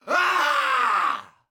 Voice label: Unarmed Elite Alert Line, dialogue; NPC; Heist Cultist Spotted

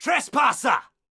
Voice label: Caster Elite Alert Line, dialogue

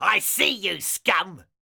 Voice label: Pirate Elite Male Alert Line; NPC; Heist Thug Spotted

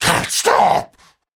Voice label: Cannibal Male Taunt; Trunk Act One Monster

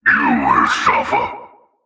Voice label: Male Alert Line; NPC; Heist Cultist Spotted

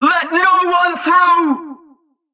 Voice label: Female Chokepoint Line, dialogue

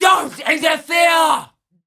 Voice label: Female Taunt, Cannibal, dialogue

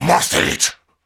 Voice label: Cannibal Male Taunt; Trunk Act One Monster

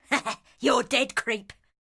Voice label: Ranged Female Alert Line, Thug Spotted; NPC; Heist

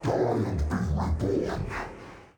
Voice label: Midfight Stereo; NPC; Malachai Combat

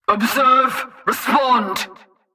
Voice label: Female Patrol Line; NPC; Heist Cultist Captain Patrol

